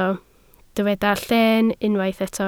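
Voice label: "llen" Welsh, spoken word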